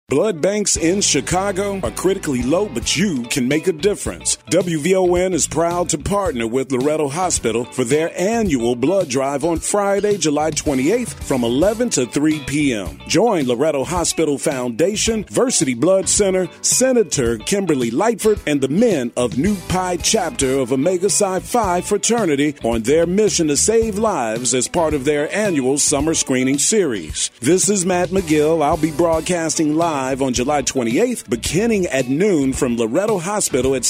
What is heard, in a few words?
Commercial